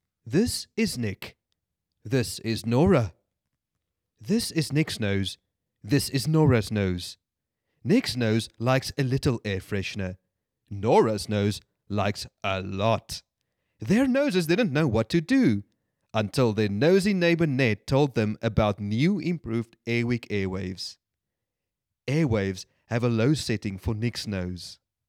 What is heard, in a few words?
Conversational